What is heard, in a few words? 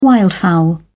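"wildfowl", British English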